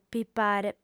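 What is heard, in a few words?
"pipare", female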